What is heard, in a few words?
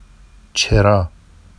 “ʧerɑ”, Vafsi; n light fixture; چِرا